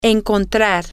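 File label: female, spanish; to Find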